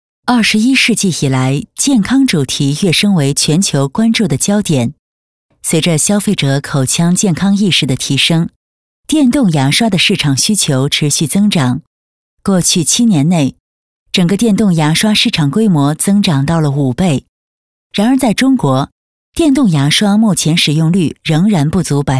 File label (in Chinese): 解说 电动牙刷 朴实沉稳